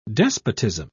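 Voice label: "despotism", British English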